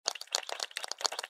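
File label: "crab", English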